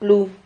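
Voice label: "plu", Spanish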